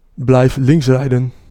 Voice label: Keep Left, Dutch male